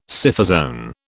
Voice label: "Scyphozoan", British